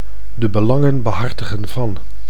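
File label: "de belangen behartigen van", Dutch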